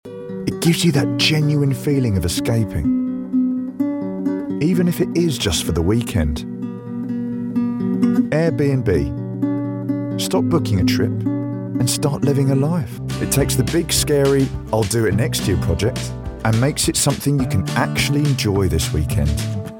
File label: London male natural